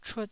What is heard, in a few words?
"chut1", taiwanese